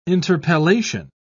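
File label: "interpellation", British English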